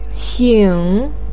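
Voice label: "qing", Chinese pinyin, third tone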